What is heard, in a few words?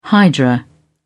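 "hydra", British English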